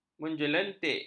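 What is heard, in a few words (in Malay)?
"menjelentik", Malay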